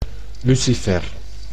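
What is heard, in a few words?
"lucifer", Dutch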